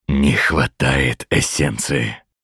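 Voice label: Hero Low Resources Russian, Мужчина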